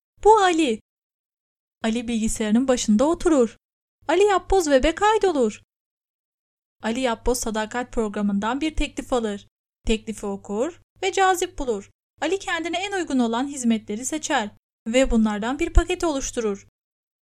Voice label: Turkish Introduction Voice over; Lala Web